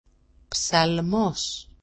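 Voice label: "psalmos", Greek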